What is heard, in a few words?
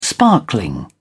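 "sparkling", British English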